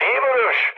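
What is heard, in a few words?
bomb success, mood high, Turkish; speech; War Thunder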